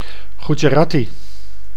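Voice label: "Gujarati", Dutch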